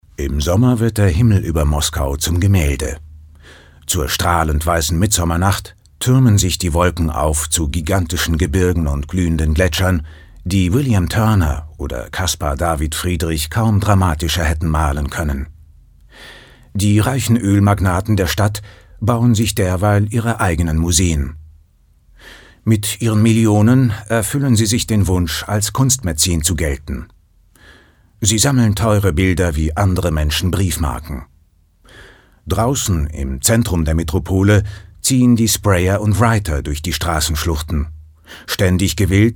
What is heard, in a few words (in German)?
Kommentar Neutral